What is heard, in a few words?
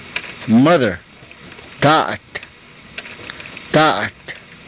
"taat", Karuk